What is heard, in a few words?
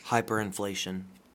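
"hyperinflation", US English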